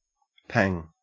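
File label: "pang", Australian English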